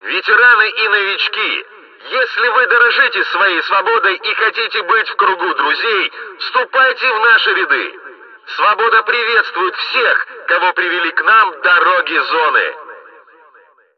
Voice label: megaphone